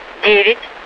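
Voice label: Russian speech, Mi-8MT RI65; DCS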